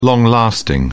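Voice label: "long-lasting", British English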